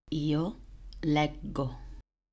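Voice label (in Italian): "leggo", Italian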